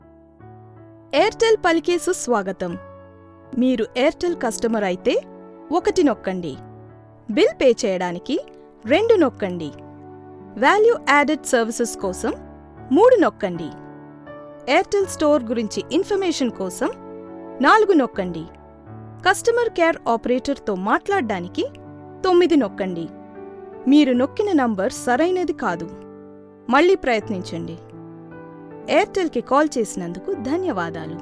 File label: IVR, Telugu